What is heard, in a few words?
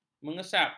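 "mengesap", Malay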